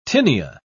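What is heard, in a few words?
"tinea", British English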